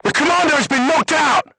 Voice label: voice message commander contused, crew tanks vietnamese